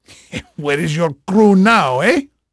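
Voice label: Portuguese male, voice; Pirates Of The Caribbean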